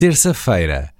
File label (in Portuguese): "Terça feira", Portuguese